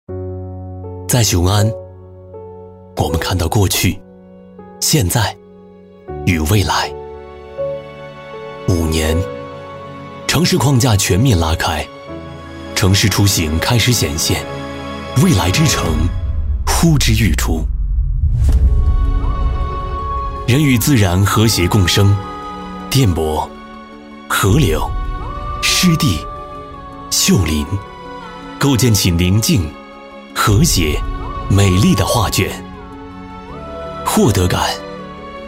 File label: male real estate narration